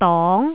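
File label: "dong2", Cantonese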